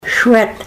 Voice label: swede, Ninilchik Russian